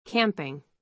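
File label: "camping", American English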